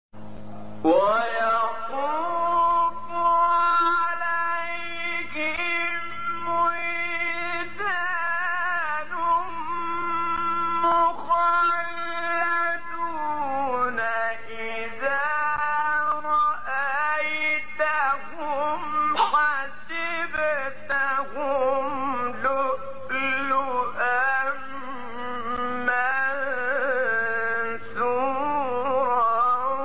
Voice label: تلاوتی زیبا از سوره انسان; آیه 19 20

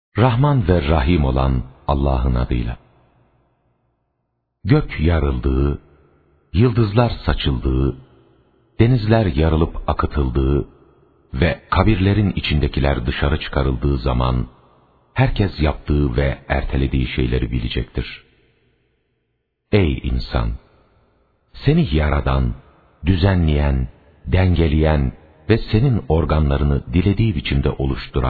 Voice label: ترجمه گویای ترکی استانبولی; سوره انفطار

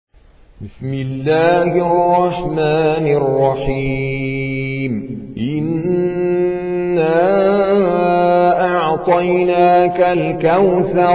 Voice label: ترتیل قاری هندی سوره کوثر

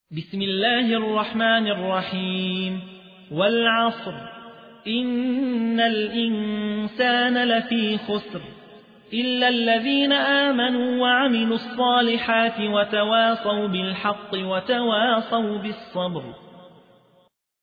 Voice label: ترتیل; یحیی حوی; سوره عصر

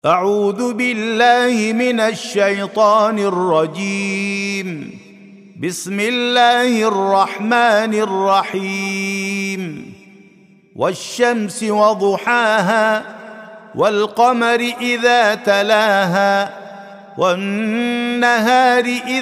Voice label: ترتیل سوره مبارکه شمس

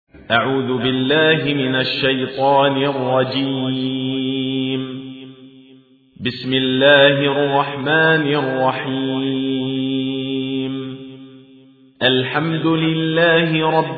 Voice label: ترتیل; عبدالله الکندری; سوره حمد

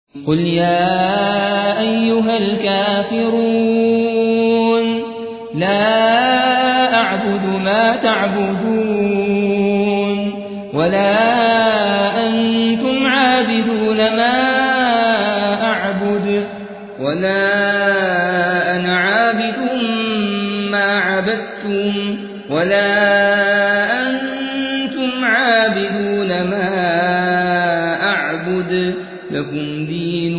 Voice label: ترتیل سوره کافرون

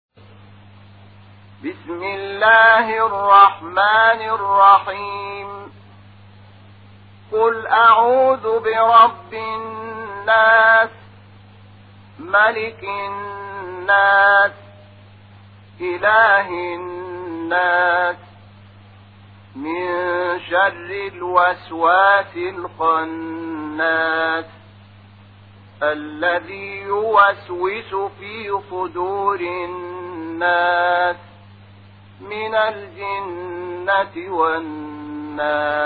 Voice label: ترتیل قرآن کریم سوره ناس; محمود شحات انور

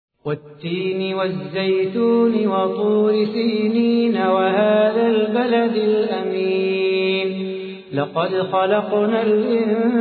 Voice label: ترتیل سوره تین; صلاح بوخاطر

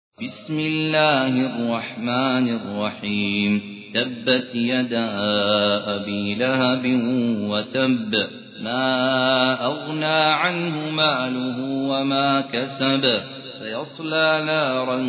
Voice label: ترتیل; عبدالله الکندری; سوره مسد

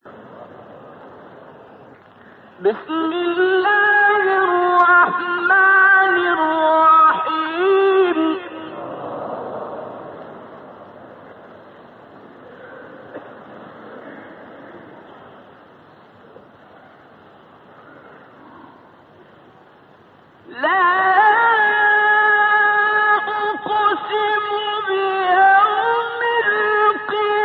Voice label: تلاوت زیبای سوره مبارکه قیامت; استاد عبدالباسط; از ابتدای سوره

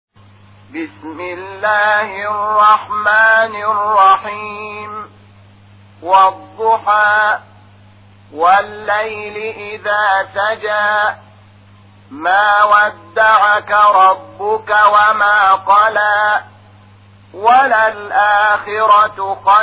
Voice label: ترتیل قرآن کریم سوره ضحی; محمود شحات انور

